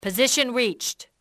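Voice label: position reached, english female; XBOX; enemy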